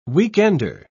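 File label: "weekender", British English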